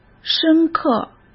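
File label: "深刻", Mandarin Chinese; chinese-flashcards; hsk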